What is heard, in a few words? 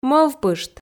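"мӧвпышт", Komi, female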